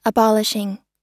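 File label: "abolishing", American English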